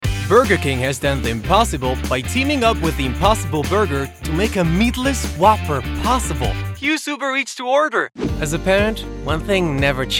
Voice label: English Commercial

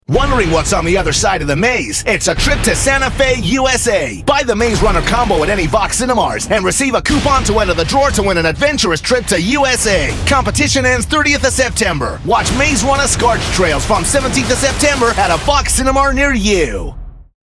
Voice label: US voice; Vox Cinema

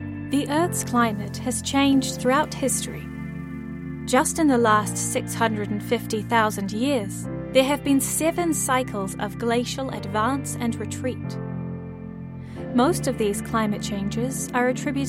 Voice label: narration

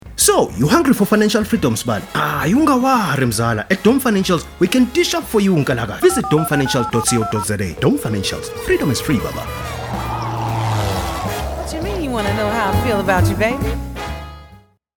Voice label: Voice Actor; BigMouthVoices

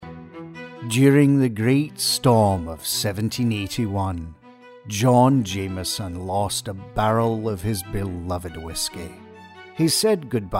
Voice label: Irish; Jameson's Whiskey Spot

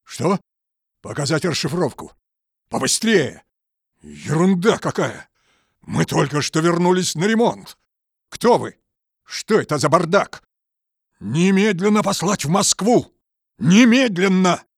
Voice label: Navy Commander video game; Phantom Doctrine

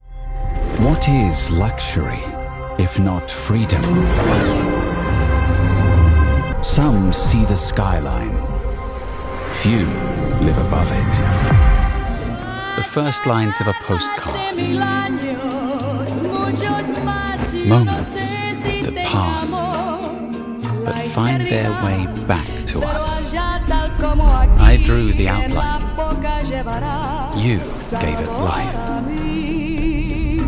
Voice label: British Commercial

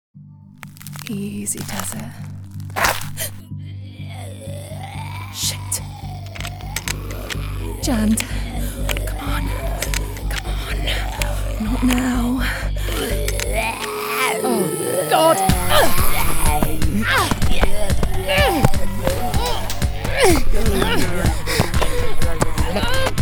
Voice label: Zombie

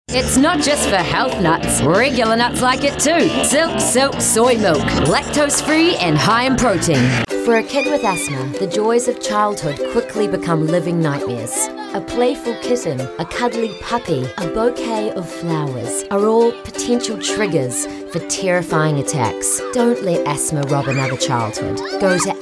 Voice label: Voice Actor; BigMouthVoices

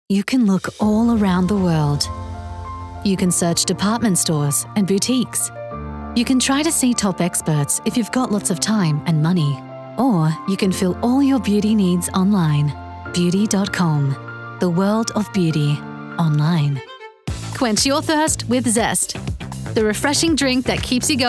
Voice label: COMMERCIAL

